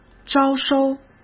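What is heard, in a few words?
"招收", Mandarin Chinese; hsk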